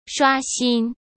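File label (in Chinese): "刷新", Mandarin Chinese; hsk; chinese-flashcards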